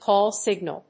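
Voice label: "call signal", English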